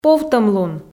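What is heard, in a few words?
"повтӧмлун", Komi, female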